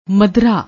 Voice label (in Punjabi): "madraa", Punjabi; ਮਦਰਾ